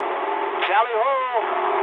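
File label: English enemy detected mood low; War Thunder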